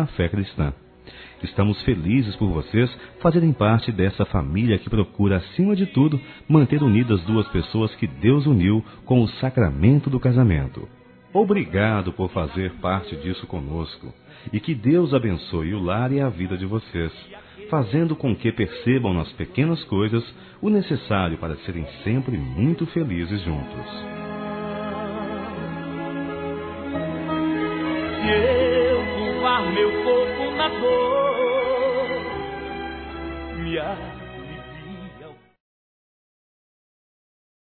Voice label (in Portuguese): ENCONTRO DE CASAIS, Telemensagens, Gospel, masc